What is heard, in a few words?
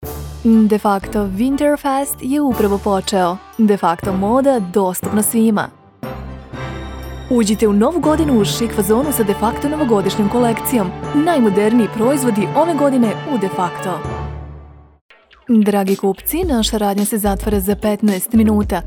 Serbian video games; Professional Serbian native voice-over actor from Belgrade